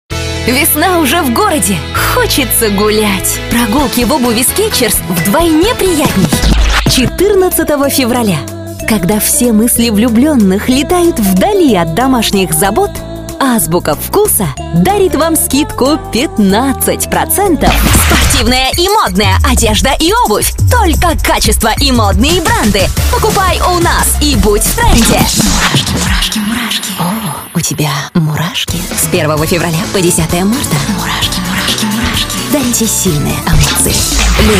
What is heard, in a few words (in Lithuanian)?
(MOT) Rusiškas balsas